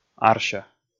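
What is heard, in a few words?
"арся" Komi, male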